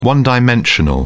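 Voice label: "one-dimensional", English, British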